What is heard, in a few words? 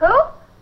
who girl; questions